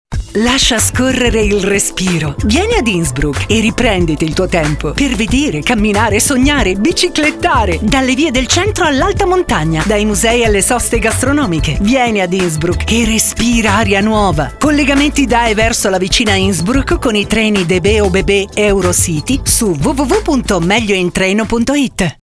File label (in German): Sommer Spot